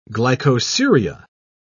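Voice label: "glycosuria", English, British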